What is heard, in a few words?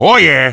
happy; wario